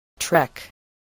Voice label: "trek", voice

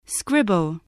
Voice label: "scribble", english spelling

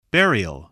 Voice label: "burial", spelling

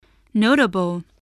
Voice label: "notable", voice, spelling

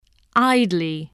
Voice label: "idly", voice spelling

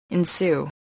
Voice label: "ensue", voice, english

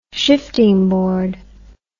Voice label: "shifting board", words

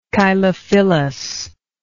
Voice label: "chylophyllously", English

